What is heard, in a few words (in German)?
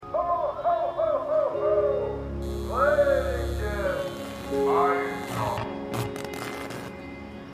Weihnachtsstimme